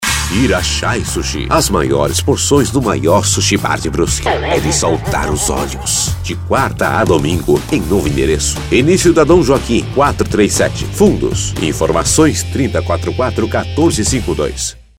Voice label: spot; irashai

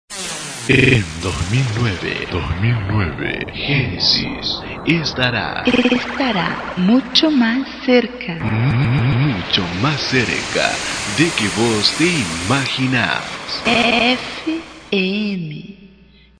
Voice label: vinheta espanhol; ds studio pro

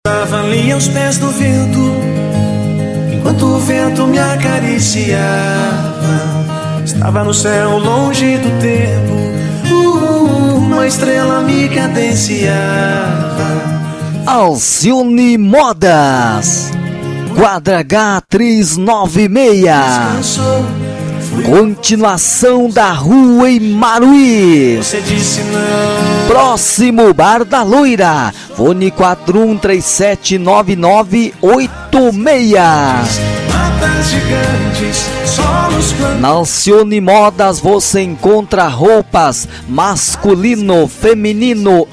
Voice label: locutor rodeios

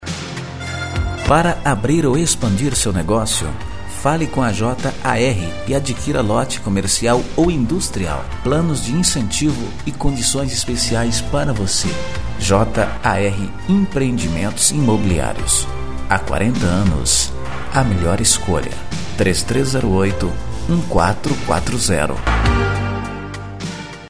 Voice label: spot; jar empreendementos

